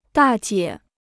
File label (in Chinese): "大姐", Chinese